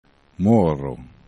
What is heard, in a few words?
"moro", esperanto